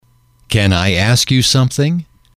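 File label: can I ask you something, male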